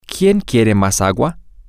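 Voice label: Spanish, who wants more water, female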